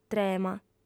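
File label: "trema", Sardinian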